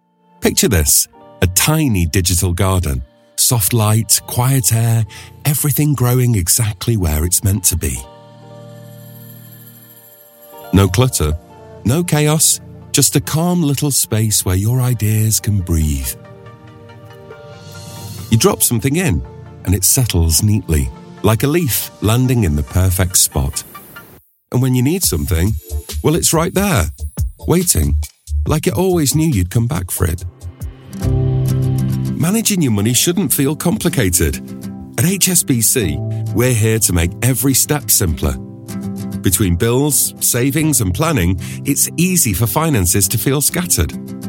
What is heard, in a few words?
explainer